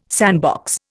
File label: "sandbox", Indian